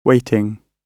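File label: "waiting", British English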